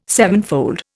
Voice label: "sevenfold", Indian